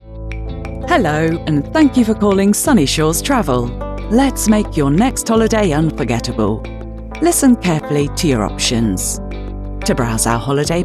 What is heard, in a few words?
IVR